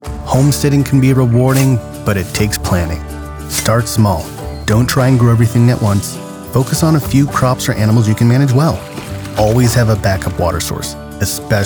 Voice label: Narration